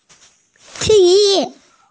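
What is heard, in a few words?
"ti1", Mandarin Chinese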